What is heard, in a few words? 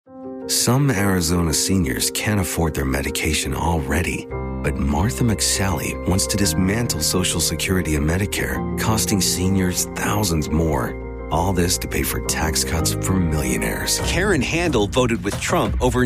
english american political ads